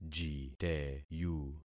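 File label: "jiteyu", concatenated syllables; Affixing experiment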